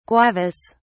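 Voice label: "guavas", British English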